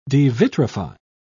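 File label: "devitrify", British English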